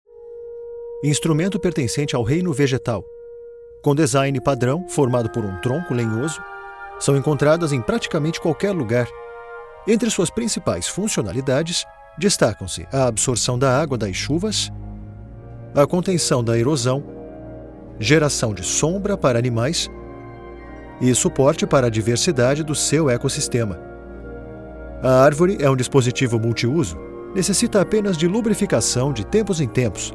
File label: Explainer, Brazilian; Tech & Startups; Portuguese; Male